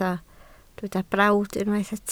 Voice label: "brawd", Welsh